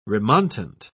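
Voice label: "remontant", English, British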